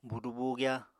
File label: "buudu-buugyá", Apatani; Household Items